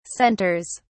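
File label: "centers", British English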